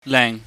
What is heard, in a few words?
"leng", chinese